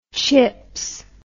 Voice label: "chips", English